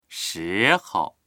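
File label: "shi2hou", Chinese; 时候; 時刻、時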